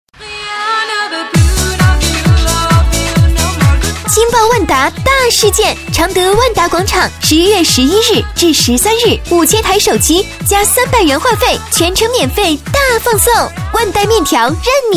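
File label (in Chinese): 女7-广告-惊爆大事件（激情）; 万达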